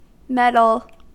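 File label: "meddle", American English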